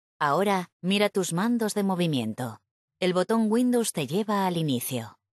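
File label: windows button, voiceovers, Spanish Spain; retaildemo; Mixed Reality Learning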